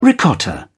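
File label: "ricotta", British English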